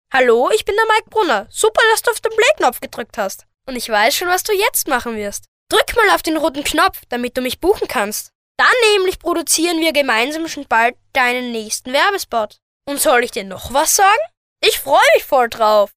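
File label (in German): Kinder Neutral, Kinderstimmen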